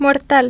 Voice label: sadness